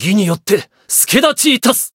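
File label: Voice chant, Japanese; shion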